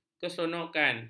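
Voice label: "keseronokan", Malay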